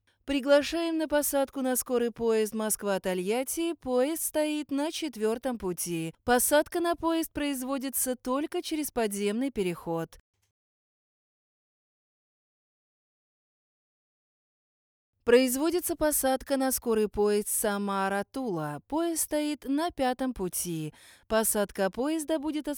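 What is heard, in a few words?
station announcer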